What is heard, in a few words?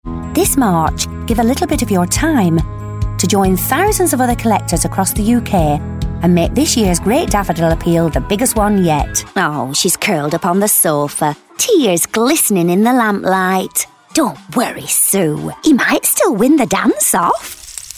Commercial Showreel